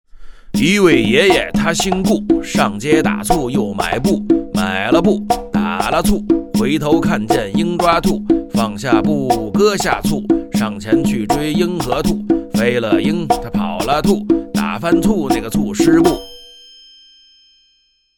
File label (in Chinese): 顺口溜